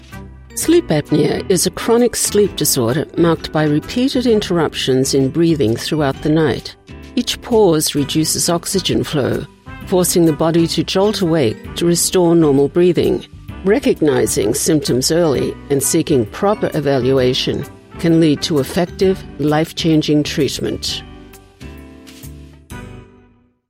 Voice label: Medical Narration, Sleep Apnea